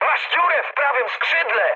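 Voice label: right wing mood high, speech Polish; War Thunder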